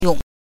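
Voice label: "yong", Mandarin Chinese syllable, neutral tone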